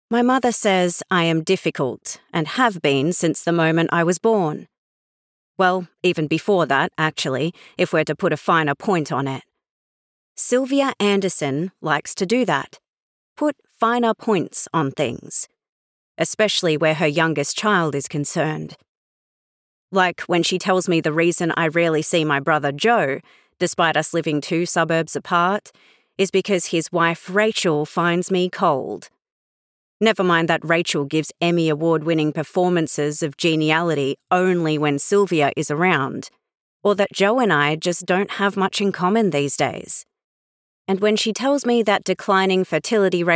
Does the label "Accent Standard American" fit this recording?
No